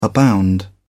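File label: "abound", English, British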